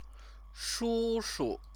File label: "shushu", Chinese